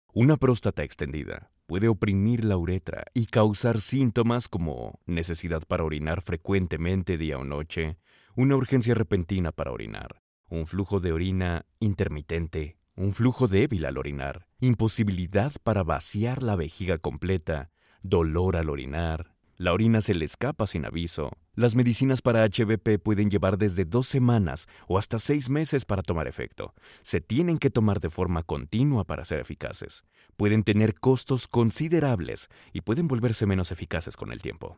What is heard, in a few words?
Spanish Latin American e-learning